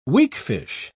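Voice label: "weakfish", British English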